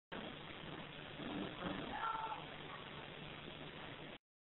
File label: bookroom hallway can hear help and other unintelligible words